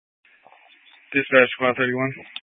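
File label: Fire Dispatch, Scanner audio recording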